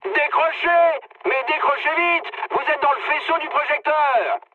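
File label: searchlite, mood high, French; War Thunder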